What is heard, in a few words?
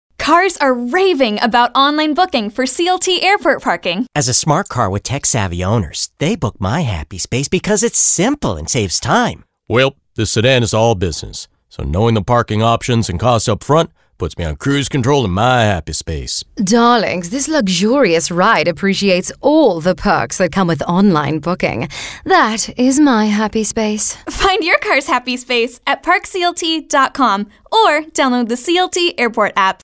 Airport Personality VOs; CLT Airport